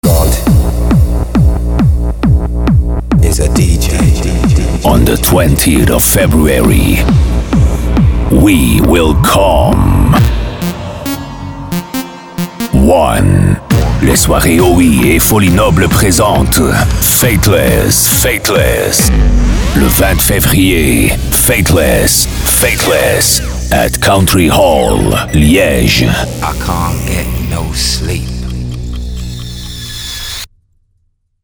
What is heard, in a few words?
party commercial